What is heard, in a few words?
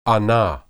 "anah", Hebrew